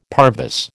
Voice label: "parvis", American